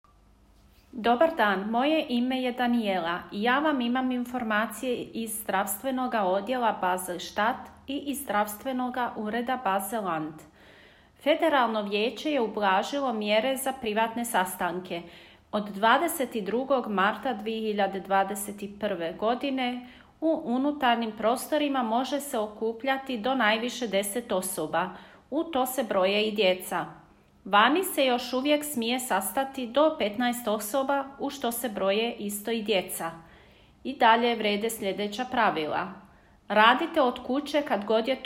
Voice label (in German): serbokroatisch; kantonsarztlicher dienst; medizinische dienste; informationen in verschiedenen sprachen